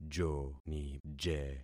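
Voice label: "jonije", concatenated syllables; Affixing experiment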